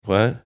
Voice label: quiet "what"

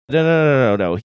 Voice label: quickly saying string of nos

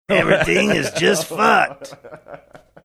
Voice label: everything is just fucked gravelly

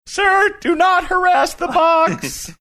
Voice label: funny

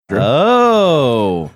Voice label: drawn out oh

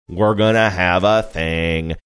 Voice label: saying were gonna have a thing sing songy

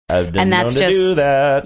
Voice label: saying ive been known to do that sing songy

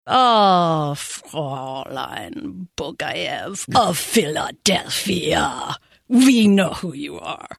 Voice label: saying oh fräulein german interrogator

